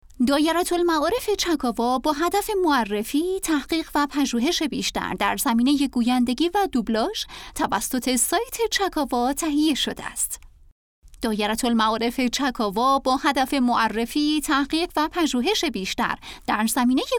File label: news, male narrators